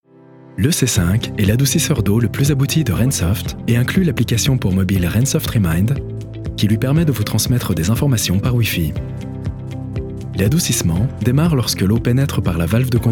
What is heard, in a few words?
Commercial